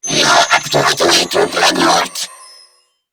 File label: Female, Grineer; New War; Narmer; Patrol